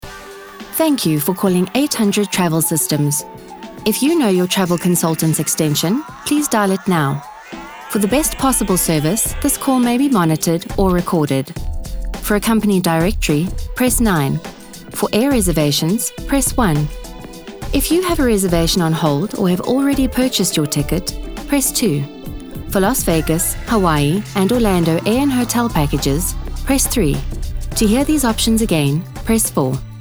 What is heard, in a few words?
english south african ivr